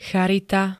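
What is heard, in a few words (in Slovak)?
"charita", slovenské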